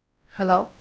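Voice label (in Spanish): Voice Acting, es, female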